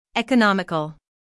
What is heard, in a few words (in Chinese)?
美籍外師念 economical